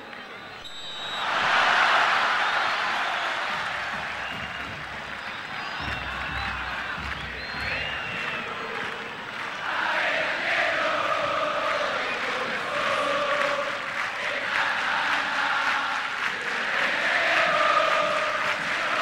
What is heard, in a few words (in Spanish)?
futbol publicidad inicio encuentro; santa fe de la vera cruz; colon